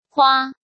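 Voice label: "Huā", Chinese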